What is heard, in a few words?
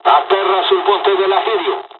landing, dialogs italian; midway jpn; War Thunder